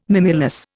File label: "mimulus", Indian